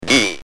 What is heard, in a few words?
"D", Speak & Spell UK